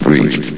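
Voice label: "breached", male synthesized voice; vox; source engine; hl1; video games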